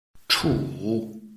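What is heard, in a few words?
"chu", Chinese, tone 3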